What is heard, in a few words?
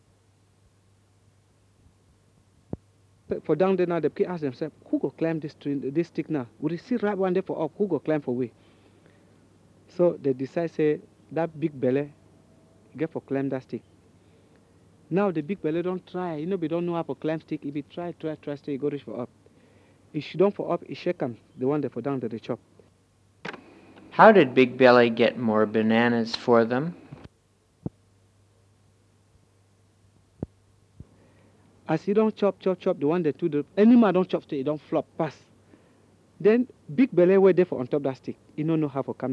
Cameroonian English Pidgin